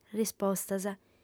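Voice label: "rispostas", female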